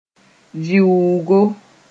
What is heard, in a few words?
"vɩʋʋgo", moore